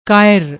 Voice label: "kayar", Punjabi